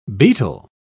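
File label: "beetle", English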